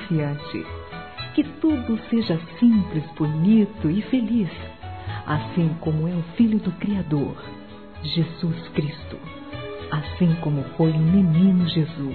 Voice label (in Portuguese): NATAL, fem; Telemensagens; Natal e Ano novo